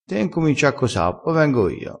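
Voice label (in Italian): "cosa", dialettale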